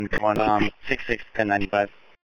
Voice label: Police Sheriff Dispatch